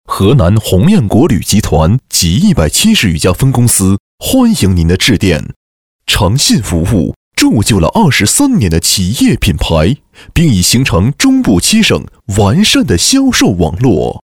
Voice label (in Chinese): 男 彩铃; 河南鸿雁管理集团